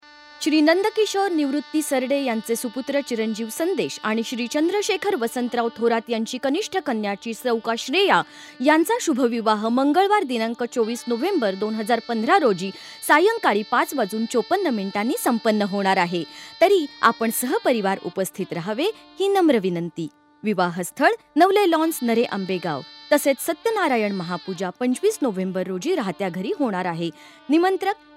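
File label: Marathi Female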